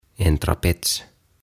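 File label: "En Trapets", "Trapetsi", Swedish, Finnish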